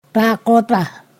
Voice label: "that far", Ponosakan

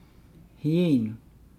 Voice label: "hieunu", Nukuoro; thirsty

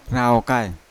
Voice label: "raukai" to tie, Yawa

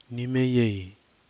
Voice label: "nɨmeiei", Kwamera

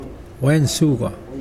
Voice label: Mokilese fruit

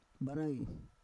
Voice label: "barai", Roon

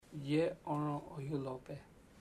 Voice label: we dont say, chamacoco; Bahia Negra

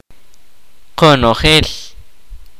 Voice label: kaqchikel; everyone

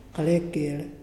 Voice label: Muyuw sand; Lae Unitech

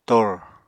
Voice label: "tor", apatani

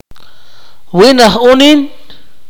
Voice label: "boy", akateko

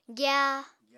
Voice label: "gya", Apatani

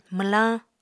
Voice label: "mwlañ", Apatani

